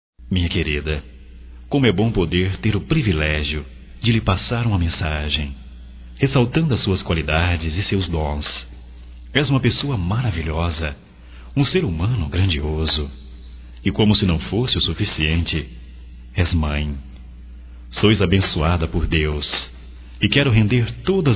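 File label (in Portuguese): ESPOSA, masculino; Dia das Maes; Telemensagens 2017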